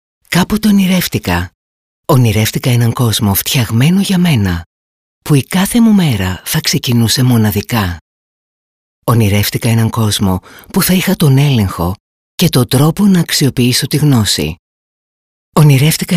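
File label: Greek, female, narration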